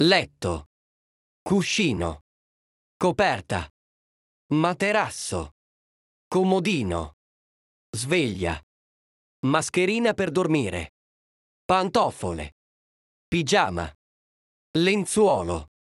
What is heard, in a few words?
sleep ita; olasz szavak alvással kapcsolatban